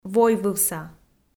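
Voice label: "войвывса", Komi, female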